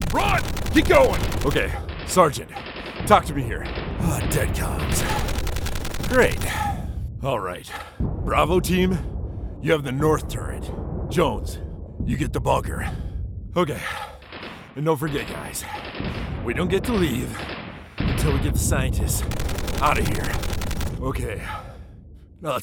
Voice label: soldier scared cowboy villain robot videogames